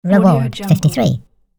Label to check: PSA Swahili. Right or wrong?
Wrong